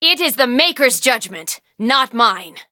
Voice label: level up, en; Cookie Run